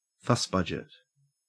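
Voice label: "fussbudget", Australian English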